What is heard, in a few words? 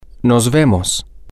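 Spanish, well see you, male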